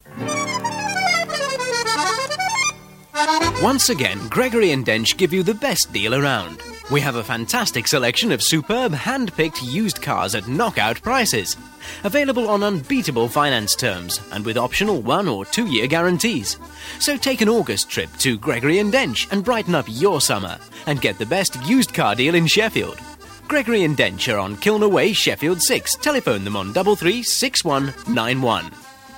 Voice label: Radio Hallam Advert; Gregory And Dench